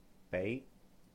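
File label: "béi", Cantonese; for; 俾; bei2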